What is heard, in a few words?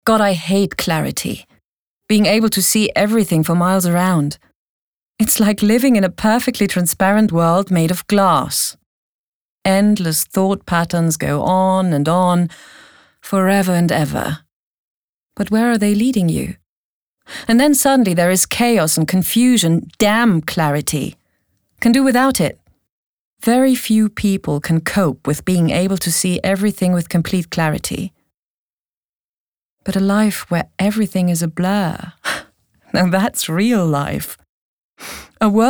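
British English, acting, Energisch; Clarity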